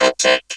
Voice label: "attack", vocal; Q Up Arts; Dream Experience; VOCOVOCAL